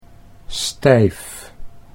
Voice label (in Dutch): "stijf", Dutch